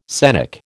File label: "scenic", American